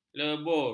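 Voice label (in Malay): "lebur", Malay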